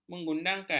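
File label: "menggudangkan", Malay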